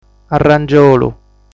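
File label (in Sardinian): "Arrangiolu", Sardinian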